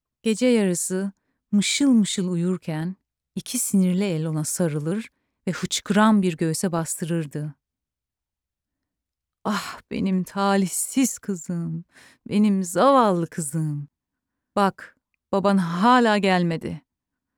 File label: Turkish Narrative